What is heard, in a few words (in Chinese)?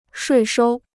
"税收", Chinese